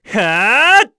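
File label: Vox Casting, korean; Esker; kingsraid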